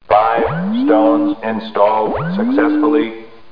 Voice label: Stone Install OK; Loony Labyrinth™ 1.02 Demo